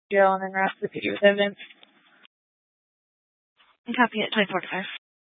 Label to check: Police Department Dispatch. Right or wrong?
Right